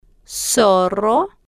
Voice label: "zorro", Spanish

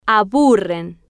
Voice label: "a-bu-rren", Spanish

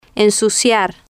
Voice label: "ensuciar", Spanish

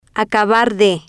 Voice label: "acabar De", spanish

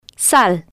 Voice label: "sal", spanish

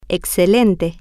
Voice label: "e-xce-len-te", Spanish

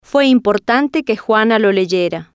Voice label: "fue Importante Que Juana Lo Leyera", spanish

